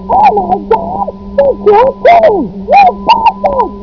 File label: Low Pass Speech; signals and systems